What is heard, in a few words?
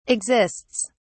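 "exists", British English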